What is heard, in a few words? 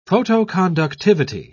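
"photoconductivity", British English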